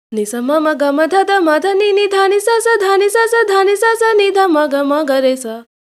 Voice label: voice, timestretch 90